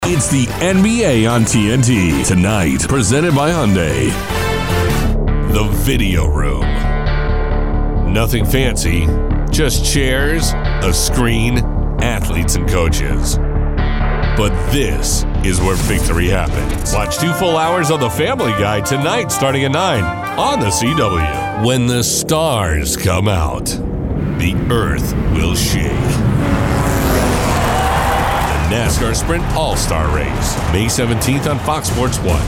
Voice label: Promo